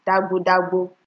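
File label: "dagbodagbo", Nigerian Pidgin, female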